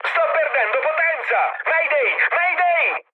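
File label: Italian damaged engine mood med, speech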